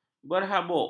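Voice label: "berhabuk", Malay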